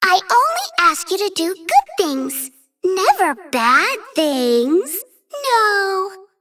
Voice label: Female Dragon Bark; Brightwing